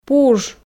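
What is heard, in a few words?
"пуж", Komi, female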